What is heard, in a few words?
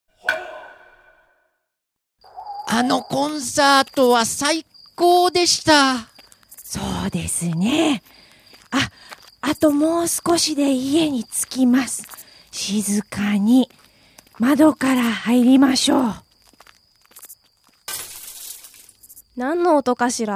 dialog; JapanesePod101; Beginner Season 1